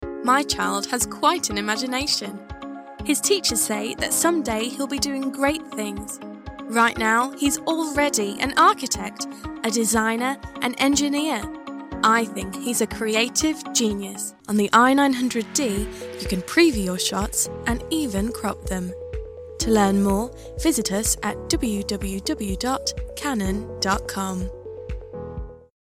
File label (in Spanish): Ingles UK Voz femenina juvenil